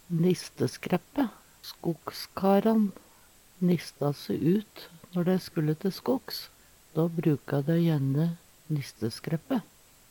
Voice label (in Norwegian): nisteskraeppe, Numedalsmål; Nisteskreppa var gjerne laga i skinn eller never og kunne berast på ryggen.